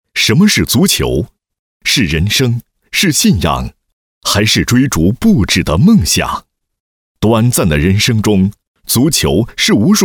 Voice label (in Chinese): 男 体育赛事宣传 宣传配音; 中国城市足球联赛